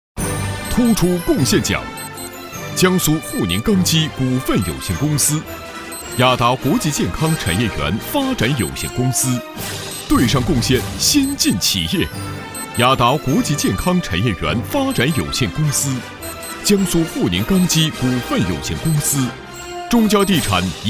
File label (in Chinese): 男, 颁奖